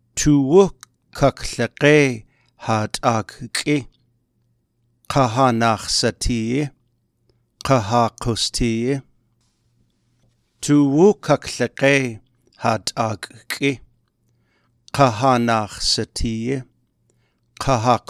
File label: tlingit; values pride in family clan